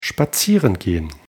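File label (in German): "spazieren gehen", German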